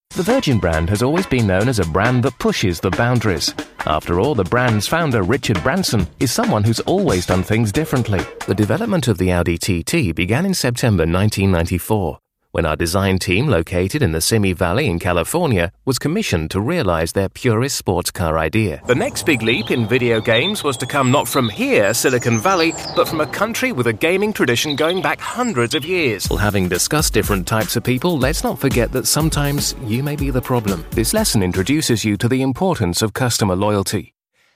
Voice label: TV, Film and Documentary, Voice over; Voice-over demos